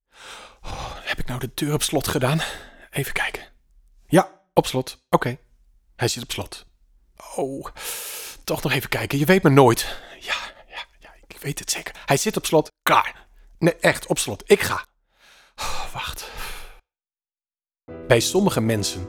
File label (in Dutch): Commentaar